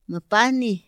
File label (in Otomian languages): ma pahni, Otomí; Días de la semana; INPI; INALI